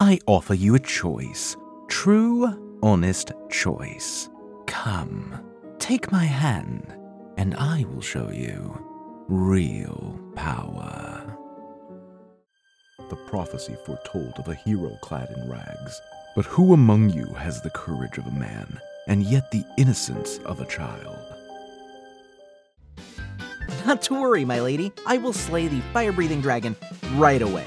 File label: Character